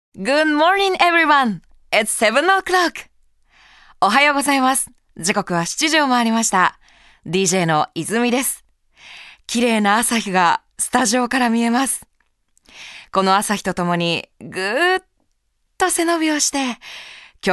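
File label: Narration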